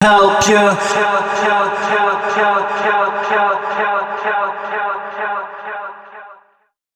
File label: male 130, Dance Ultra Voice, short hooks; Mutekki Media; Dance Ultra Voice VOL-1